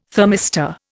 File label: "thermistor", Indian